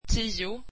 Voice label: swedish ten